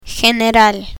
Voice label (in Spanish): "general", Spanish